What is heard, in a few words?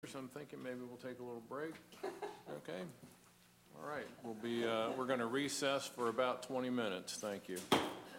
Announcement of Recess; planning zoning meeting; Council Chambers, Sussex C